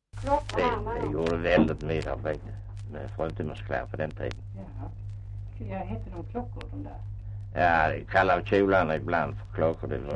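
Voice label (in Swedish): Östra Ingelstad Skåne, Svenska sagor, dialekter; Folkminnen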